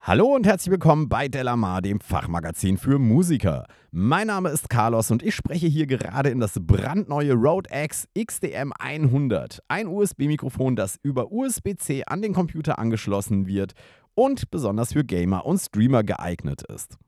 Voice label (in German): studio; rode x xdm 100